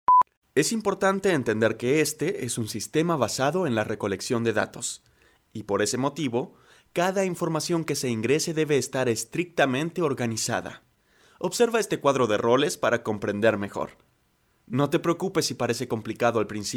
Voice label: spanish argentinian e learning